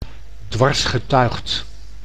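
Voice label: "dwarsgetuigd", Dutch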